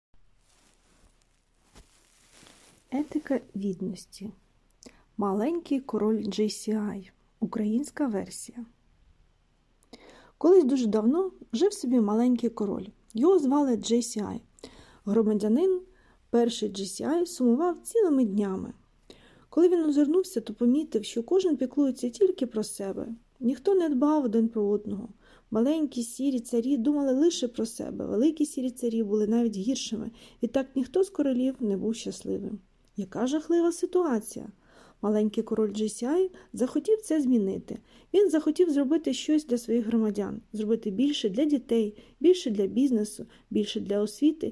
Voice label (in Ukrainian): audiobook Ukrainian